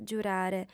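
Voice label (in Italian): "giurare", lingua sarda, female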